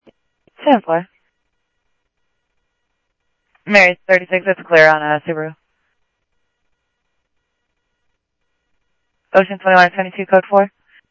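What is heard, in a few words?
Simulcast police department, scanner audio trunk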